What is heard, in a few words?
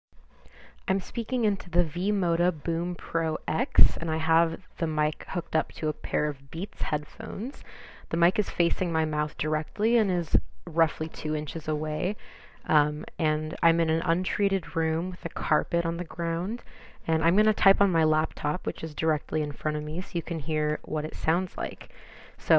mic sample; V MODA BoomPro X